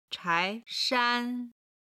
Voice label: "chai2 shan1", Chinese